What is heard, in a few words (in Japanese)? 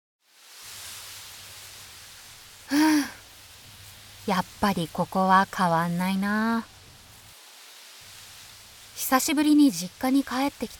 ラジオドラマ風イメージCM ハートフル、セリフ、モノローグ